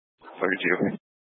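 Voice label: Fire Dispatch, scanner audio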